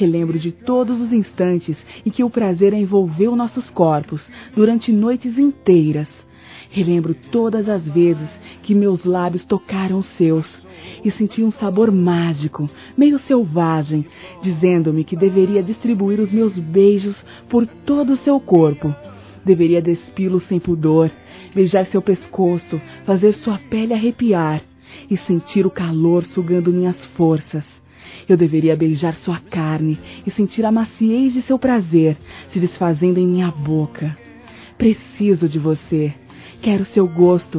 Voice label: ANIV NAMORO (F), Sensuais; Telemensagens; fem

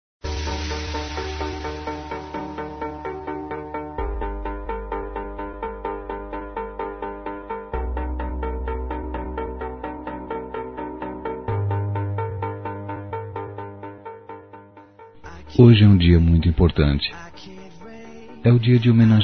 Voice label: Dia das Mães Filho Para Mãe Masculino, Telemensagens; Telemensagens 2017

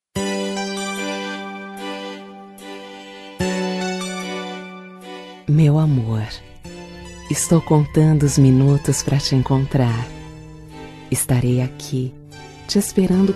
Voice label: Telemensagen declaração erotica (voz feminina)

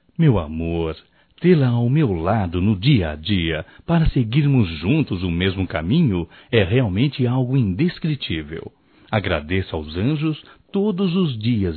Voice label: DIA DAS MãES ROMâNTICO (M), masc; Telemensagens 2017

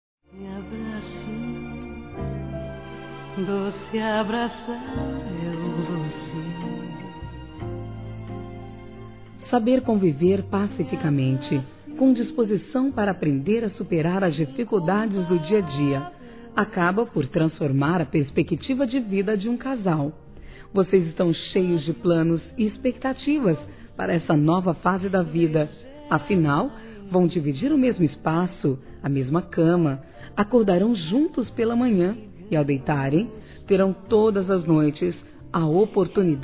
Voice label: FELIC. PELO CASAMENTO F; Casamentos; fem; Telemensagens

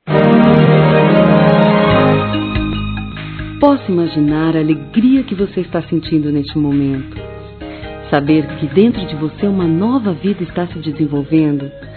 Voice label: Parabéns a Gravida Feminino; Telemensagens; Felicitações; Telemensagens 2017

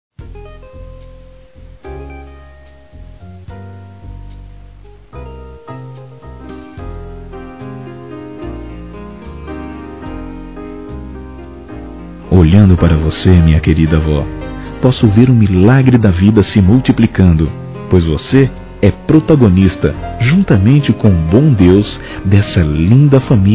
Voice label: Aniversário Avó Masculino

